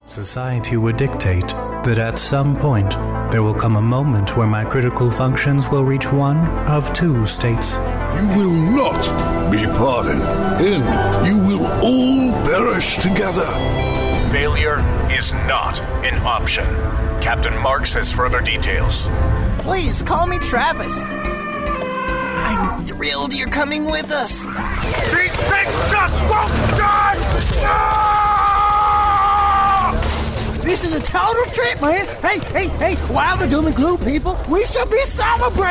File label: english american video games; professional American English Voice Actor